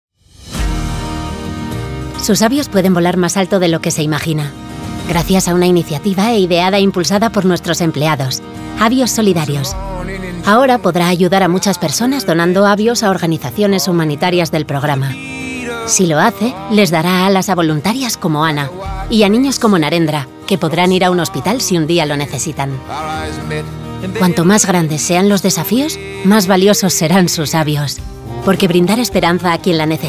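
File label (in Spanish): corporativo España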